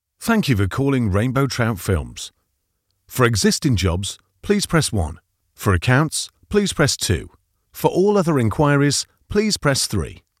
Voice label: telephone